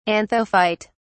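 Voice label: "anthophyte", British English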